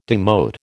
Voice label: "demote", American